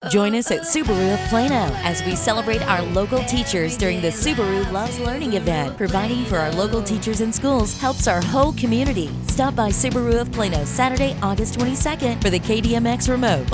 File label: Radio